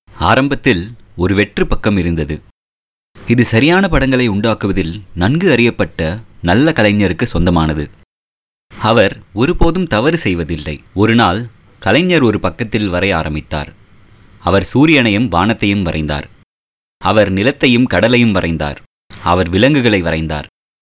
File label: Tamil, Male